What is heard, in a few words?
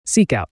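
"seek out", American English, male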